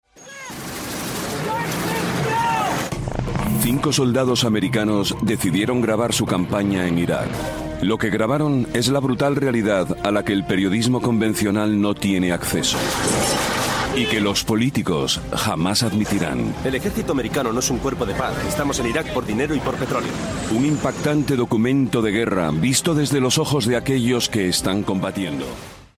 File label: spanish documentaries